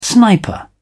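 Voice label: "sniper", British English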